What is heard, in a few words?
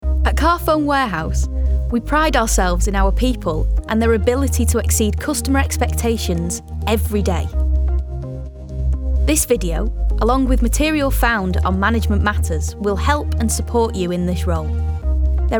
Corporate Reel